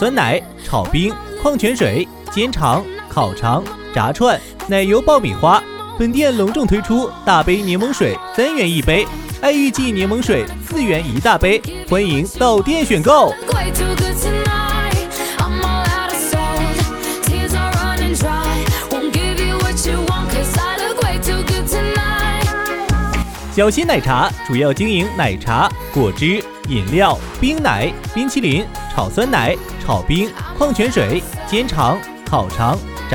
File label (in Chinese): 男, 促销 奶茶; 小新奶茶